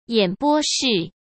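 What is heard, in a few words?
"演播室", Mandarin Chinese; chinese flashcards; hsk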